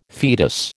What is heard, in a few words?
"foetus", American